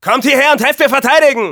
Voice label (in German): Scout help me defend, de